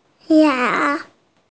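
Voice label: "ya2", Mandarin Chinese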